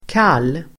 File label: "kall", Swedish